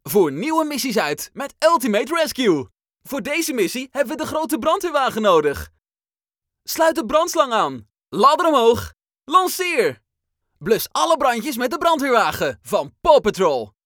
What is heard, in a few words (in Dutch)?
demo thuisstudio